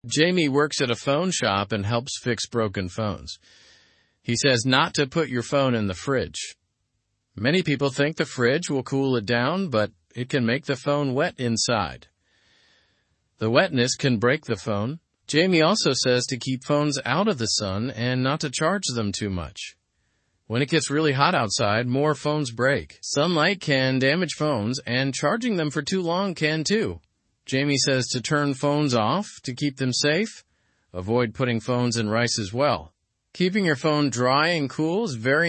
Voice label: learn english through news beginner normal; do not put phones in fridge